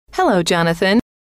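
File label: hello, female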